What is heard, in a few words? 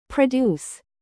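"live", verb, British; produce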